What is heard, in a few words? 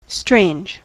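"strange", US English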